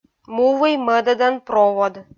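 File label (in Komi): "мувый мӧдӧдан провод", Komi, female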